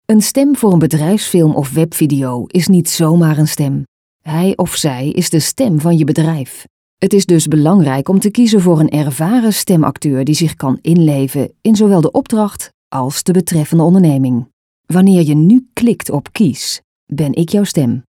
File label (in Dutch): BEDRIJFSFILM